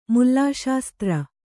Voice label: "ಮುಲ್ಲಾಶಾಸ್ತ್ರ", kannada